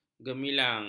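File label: "gemilang", Malay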